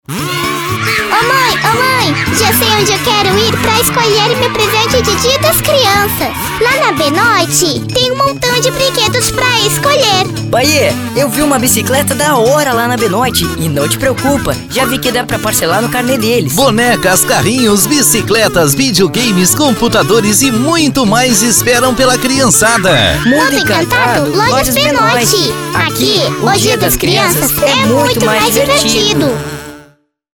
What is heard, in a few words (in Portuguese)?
loc fem infantil, Locutora Comercial; Spots comerciais, VT's, áudios-visuais, esperas telefônicas, vinhetas, teasers e outros; Criativa Áudio Produtora